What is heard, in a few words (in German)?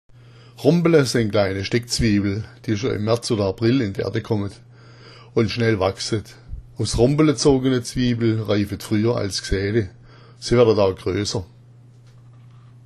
"Rombela", schwaebisch; Rombela sind kleine Steckzwiebel